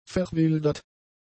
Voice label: "verwildert", German; adjectives

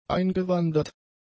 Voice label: "eingewandert", German; adjectives

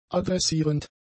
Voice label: "adressierend", german; adjectives

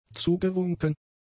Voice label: "zugewunken", German; adjectives

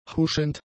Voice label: "huschend", German; adjectives

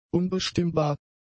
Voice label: "unbestimmbar", German; adjectives

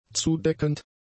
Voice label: "zudeckend", German; adjectives

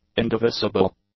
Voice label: "indivisible", English; adjectives

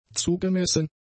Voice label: "zugemessen", German; adjectives

